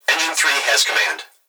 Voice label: Emergency Human Young Male Voice Fire Dispatch Engine Three Has Command; emergency effects